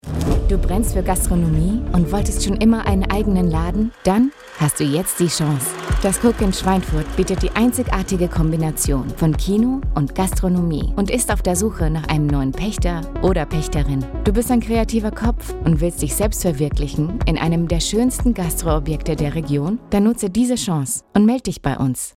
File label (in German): Radiospot